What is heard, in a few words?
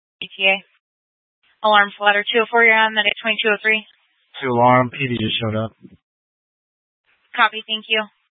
Fire Departments Mesa Simulcast; TOPAZ